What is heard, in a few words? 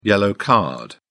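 "yellow card", British English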